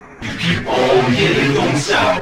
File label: male fx vox, vocal house, g#; PS-28 Vocal House Samples